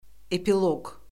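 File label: "epiloq", Azerbaijani; Orfoqrafiya Lüğəti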